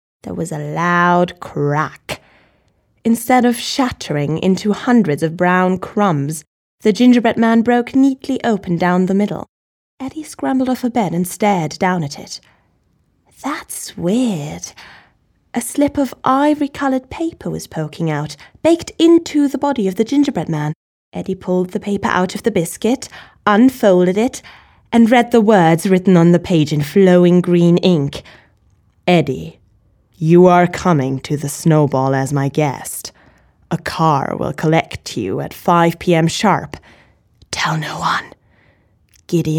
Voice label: female english canadian and german english with undetectable accent